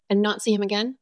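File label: VoiceActing Spanish, female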